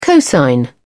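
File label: "cosine", British English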